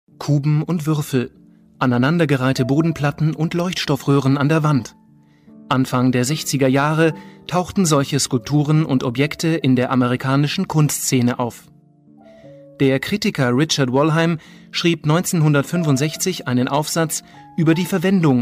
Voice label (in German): Doku; Minimal Art